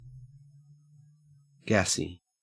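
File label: "gassy", Australian English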